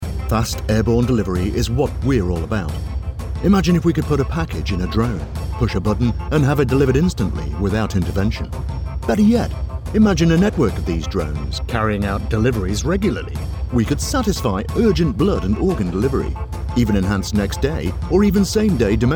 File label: voiceover corporate sizzles drone company promo